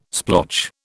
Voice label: "splotch", British

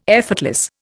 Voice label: "effortless", Indian

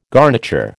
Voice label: "garniture", American

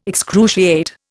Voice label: "excruciate", Indian

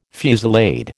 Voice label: "fusillade", American

